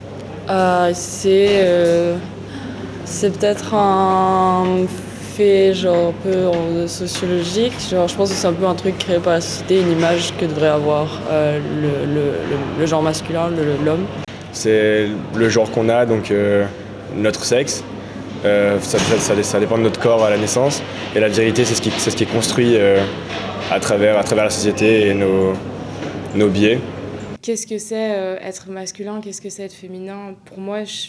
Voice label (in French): Micro trottoir; Masculinite